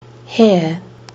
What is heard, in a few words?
"hair", kiwi english